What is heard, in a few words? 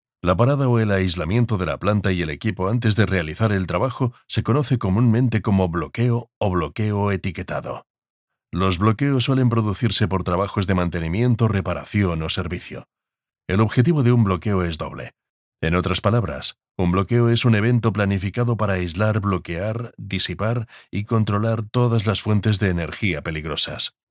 locutor español spanish voiceover